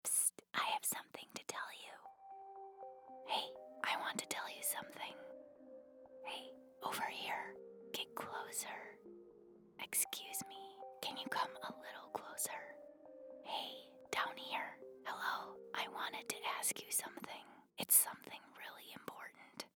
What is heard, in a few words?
english american video games